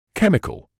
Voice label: "chemical", medical english uk; KEM-i-kuhl; ˈkem.ɪ.kəl